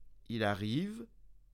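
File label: "Il arrive", French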